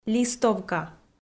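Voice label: "листовка", Komi, female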